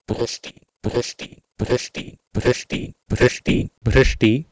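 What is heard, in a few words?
Proto-Indo-European bhrsti to Sanskrit bhrshti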